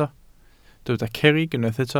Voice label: "cerrig", Welsh